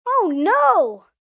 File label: Oh No Shock, child, boy, shocked; Kids; Exclamation Sound Effects